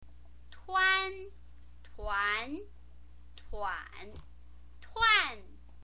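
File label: "tuan", Mandarin Chinese pinyin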